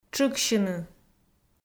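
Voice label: "тшыксьыны", Komi, female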